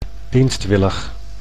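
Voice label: "dienstwillig", Dutch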